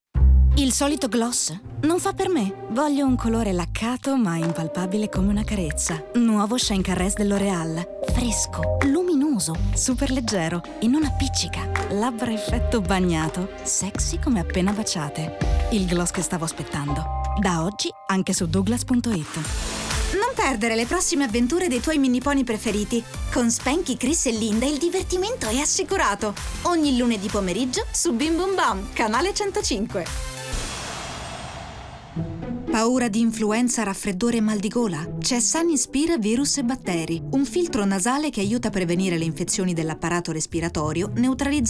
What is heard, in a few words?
commercial